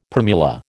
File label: "primula", American